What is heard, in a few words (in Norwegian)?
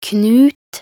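"knut", Norwegian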